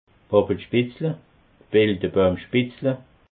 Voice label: "pappelspitzle", alsace

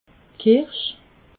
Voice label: "kirsch", alsace

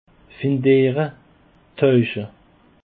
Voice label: "feindere", alsace

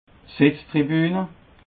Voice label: "setz tribune", alsace

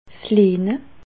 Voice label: "lehne", alsace

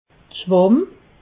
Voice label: "schwamm", alsace

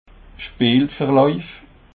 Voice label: "speelverlauef", alsace

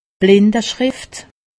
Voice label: "blindeschrift", alsace; medecine

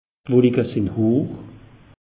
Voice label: "wolike sinn hoch", alsace; meteo

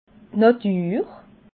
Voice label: "natur", alsace

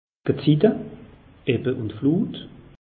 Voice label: "gezite", alsace; meteo

